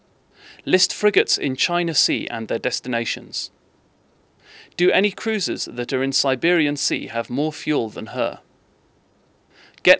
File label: Interfering Speech